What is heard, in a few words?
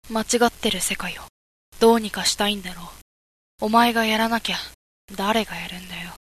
boy